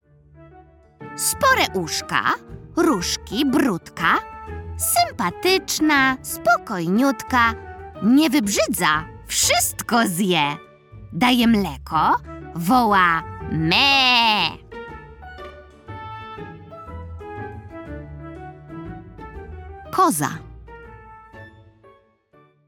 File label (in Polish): narracja; czasopismo mis; lato; zagadka